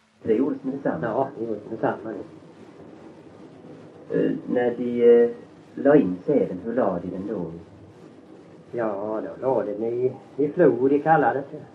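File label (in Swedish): Öljehult Blekinge, dialekter; Folkminnen; Svenska sagor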